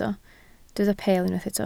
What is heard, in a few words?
"peel", Welsh